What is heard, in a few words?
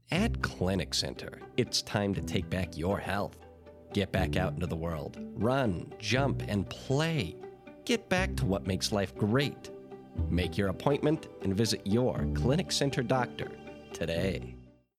Health Commercial; voice over reel; Commercial Reel Sample